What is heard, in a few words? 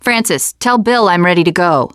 teen girl